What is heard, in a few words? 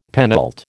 "penult", American